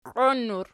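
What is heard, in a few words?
"qioinnur" nominative, Archi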